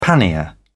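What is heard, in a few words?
"pannier", British English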